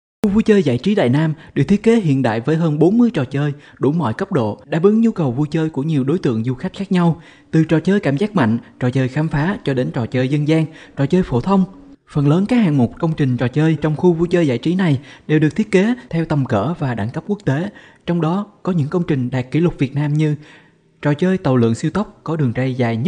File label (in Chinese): 越南语男; 外语; 小语种